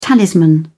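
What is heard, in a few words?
"talisman", British English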